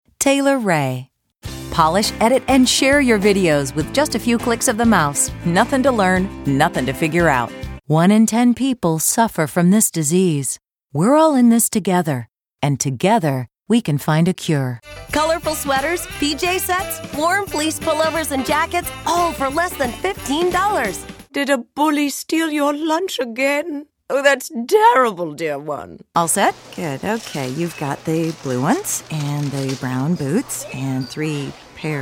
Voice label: female voice; voice talent; television and radio commercials, industrials, cd-roms, cartoon